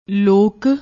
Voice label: "lok", Punjabi